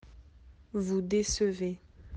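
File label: "décevoir", French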